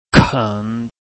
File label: "ken3", Chinese